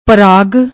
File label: "praag", Punjabi